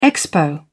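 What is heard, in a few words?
"expo", English, British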